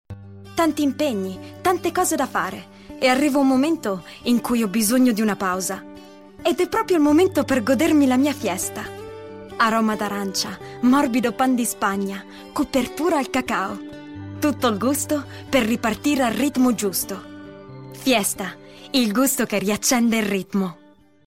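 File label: Italian, female, commercial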